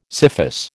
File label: "scyphus", American